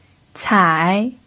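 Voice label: "cai", pinyin, third tone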